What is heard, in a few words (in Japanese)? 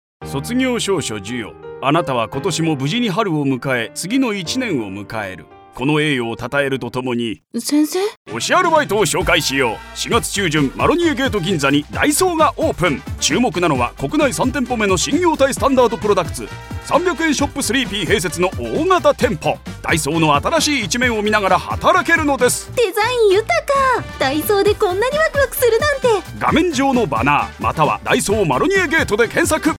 ナレーション BGM; 大創様